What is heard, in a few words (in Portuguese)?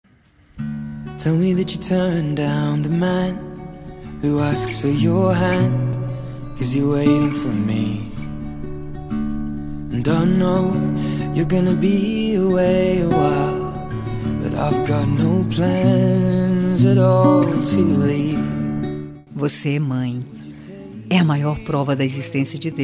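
birthday MAE SING female, telemensagem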